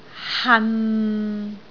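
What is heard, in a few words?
"han6", Cantonese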